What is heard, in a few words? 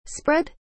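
"spread", English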